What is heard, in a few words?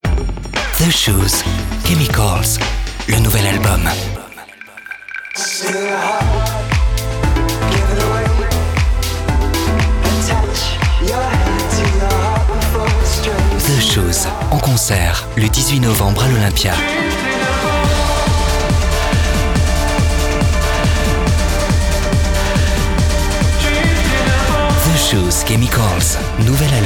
RADIO AD; The Shoes Chemicals